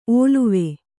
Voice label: "ಒಲವು", Kannada

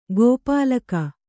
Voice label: "ಗೋಪಾಲಕ", Kannada

